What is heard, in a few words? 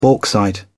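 "bauxite", British English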